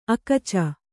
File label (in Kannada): "ಅಕಚ", Kannada